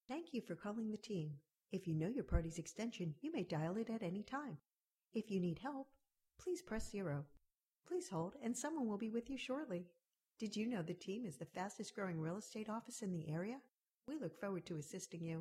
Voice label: a telephone message